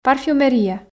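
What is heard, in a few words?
"парфюмерия", Komi, female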